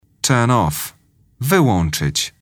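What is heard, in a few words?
"turn off", English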